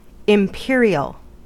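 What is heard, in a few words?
"imperial", US English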